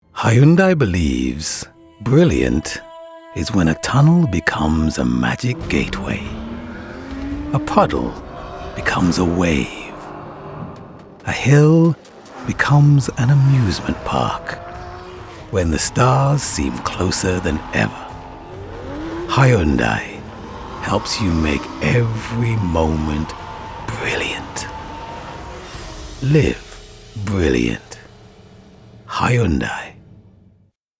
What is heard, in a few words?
commercial; Hyundai